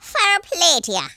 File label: brilliant, Irish; speech